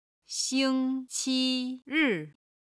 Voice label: "xing1qi1ri4", Chinese